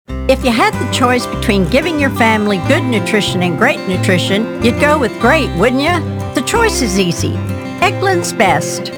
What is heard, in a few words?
Commercial Authentic Appealing